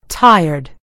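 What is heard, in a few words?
"tired", American English; gcompris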